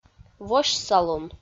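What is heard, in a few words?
"восьсалун", Komi, female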